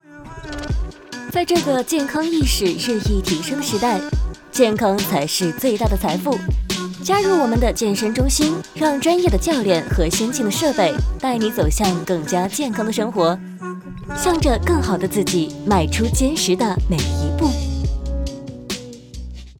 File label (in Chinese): 运动健身类广告知性官方